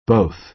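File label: "both", American English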